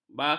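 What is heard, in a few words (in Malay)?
"bakung", Malay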